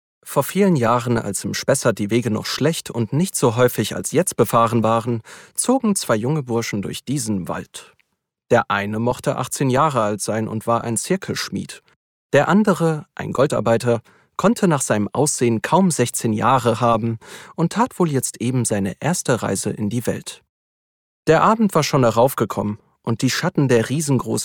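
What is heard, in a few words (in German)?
Hörbuch Märchen, Märchenstunde, Audio Drama, German; HÖRSPIEL; LOFT VOICES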